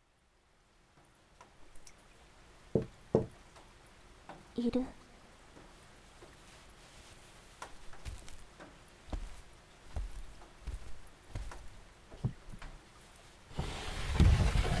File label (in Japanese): 雨降りバージョン, ASMR; 田舎の無感情保健委員長と早漏の特訓。 if ～もしキミが不良少年だったら～